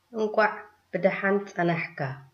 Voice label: welcome, tigrinya